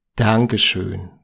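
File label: "dankeschoen", German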